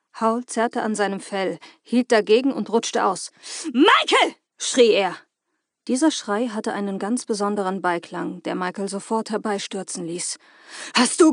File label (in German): Hoerbuch Wuetender Howl; Hörbücher